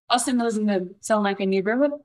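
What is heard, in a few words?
Voice Acting es, female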